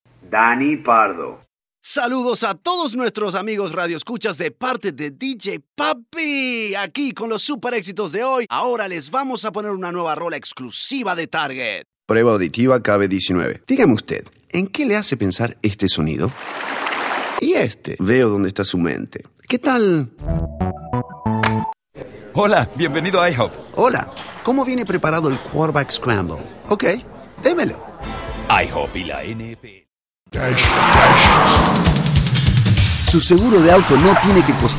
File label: span vo; Voice Demo